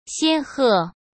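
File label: "仙鹤" Mandarin Chinese; hsk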